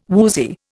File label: "woozy", Indian